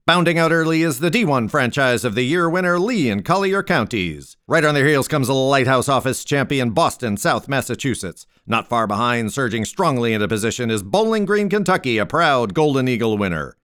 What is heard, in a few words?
Race Announcer